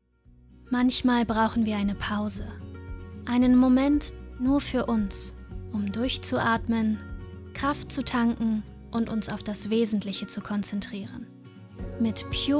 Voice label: WERBUNG, Commercial, German; voice; "Pure Balance"

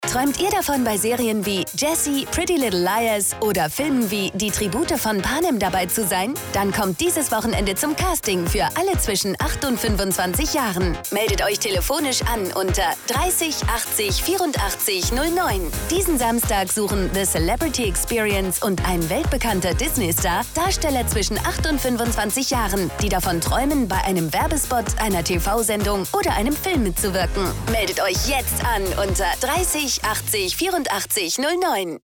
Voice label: deutsch werbung

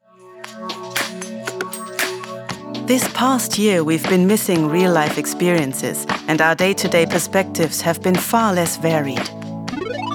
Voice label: Brand Voice Englisch; ShareNow

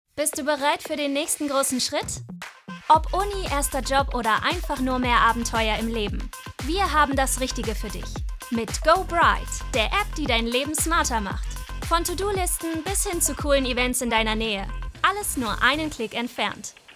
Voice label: WERBUNG, Commercial, German; voice; "Go Bright"

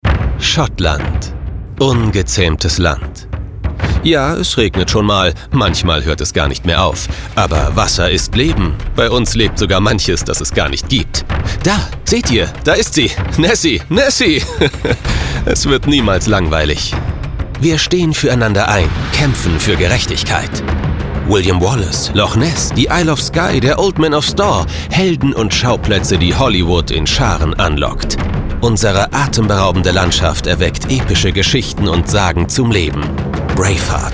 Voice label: Sprecherdemo Reisetipp

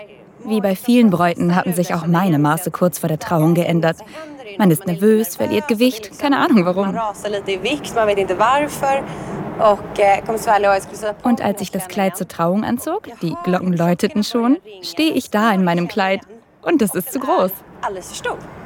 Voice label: Voiceover; Madeleine von Schweden; LOFT VOICES

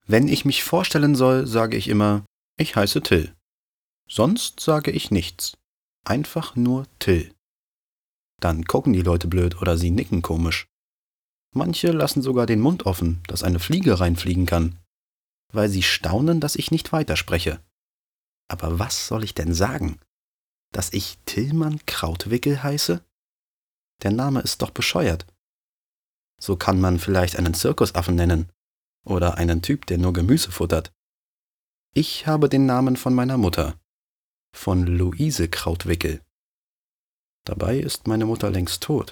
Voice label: Hörbuch Jugend

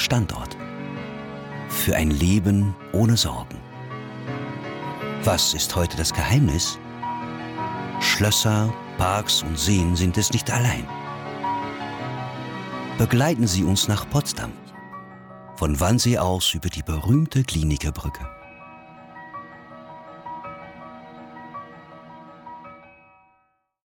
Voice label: deutsch berlin

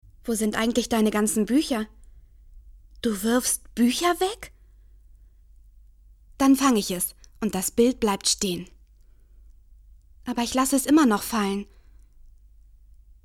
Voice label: Synchron

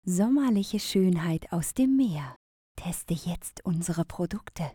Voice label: Werbebeispiel Beauty

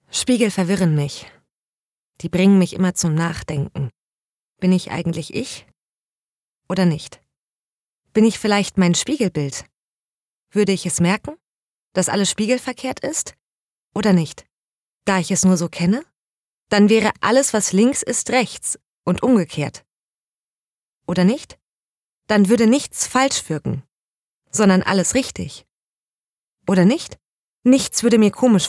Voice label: Innerer Monolog

